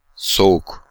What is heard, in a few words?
Cold in Turkish